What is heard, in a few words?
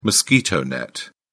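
"mosquito net", British English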